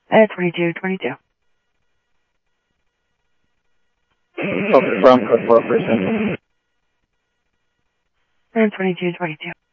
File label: Interop Mutual Aid, scanner audio